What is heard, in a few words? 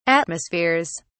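"atmospheres", English, uk British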